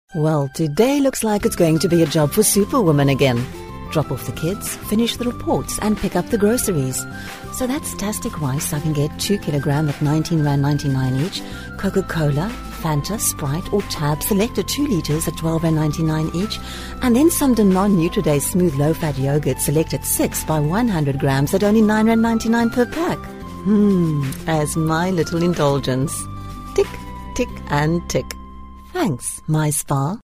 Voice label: Radio Commercial

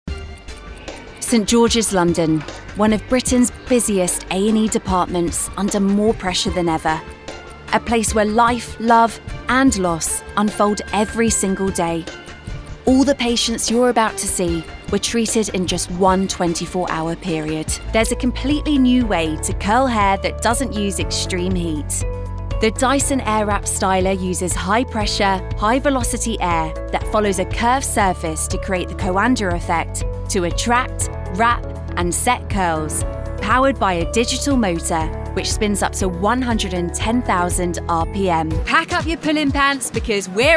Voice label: Narrative

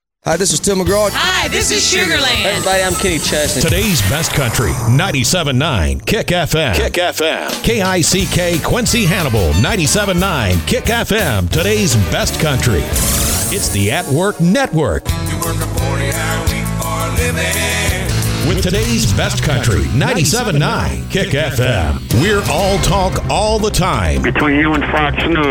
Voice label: STATION IMAGING

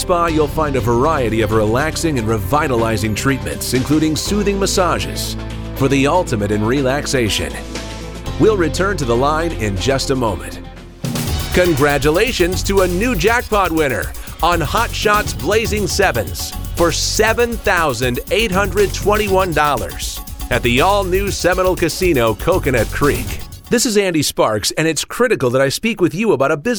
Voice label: On Hold

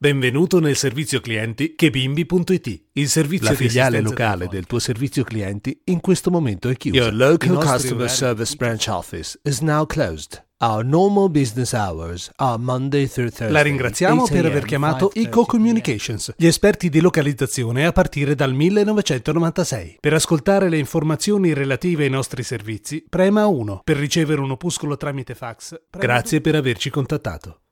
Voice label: Phone IVR System

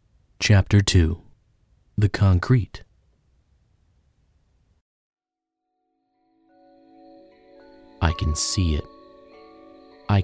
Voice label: Audio Books

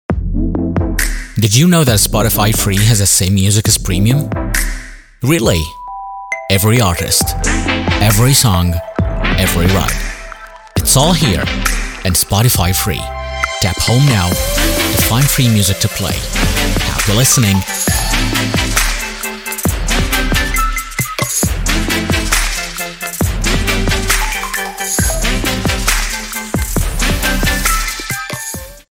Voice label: US Ad; Sporify

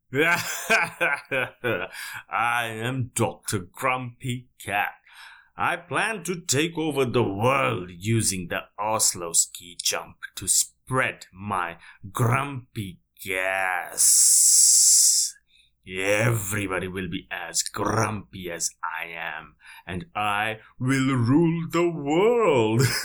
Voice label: English Characters

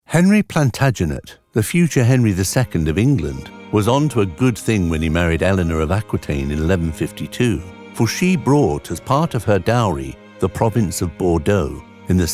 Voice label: Narr

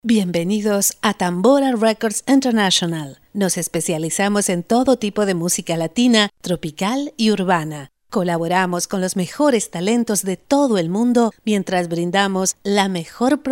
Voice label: bilingual; TamboraRecords

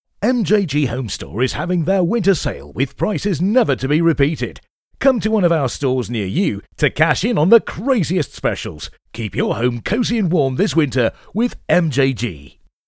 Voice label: British sales

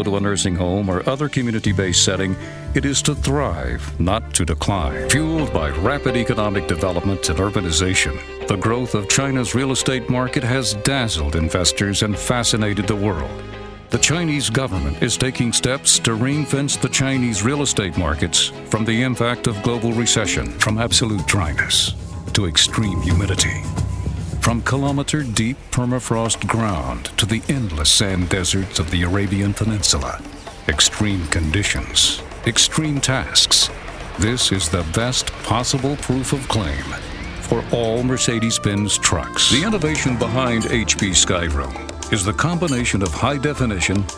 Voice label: Long Form Narration; Corp Sound Prod House

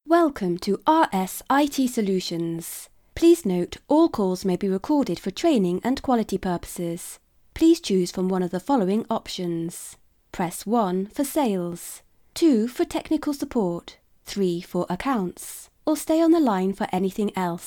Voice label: Telephone

